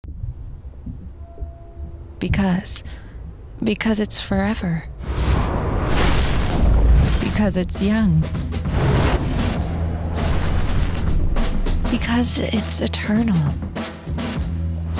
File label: Demos Commercial English and German; McDonalds